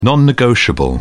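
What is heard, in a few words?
"non-negotiable", British English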